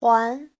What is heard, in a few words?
"huan2", chinese; 还; (động từ) trả; Giáo trình chuẩn HSK3